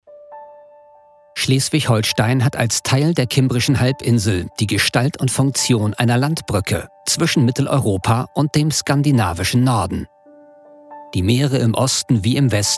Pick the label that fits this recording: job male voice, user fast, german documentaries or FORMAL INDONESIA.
german documentaries